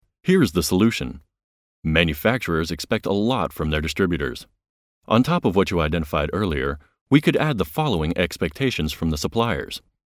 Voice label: Nachvertonung Film Sprecher Englisch US

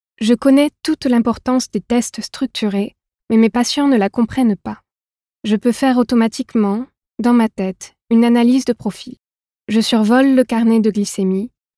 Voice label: Sprecherin Französisch; Nachvertonung Film

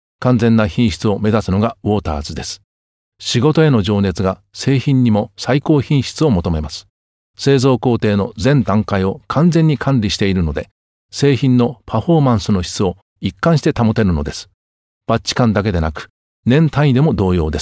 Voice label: Nachvertonung Film Sprecher Japanisch

